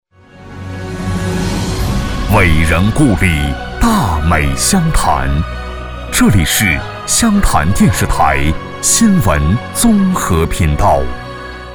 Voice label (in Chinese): 广告 片头片花 频道台呼 大气